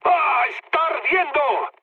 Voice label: on fire mood low; War Thunder